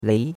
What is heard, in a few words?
"lei2", chinois; Cours de Chinois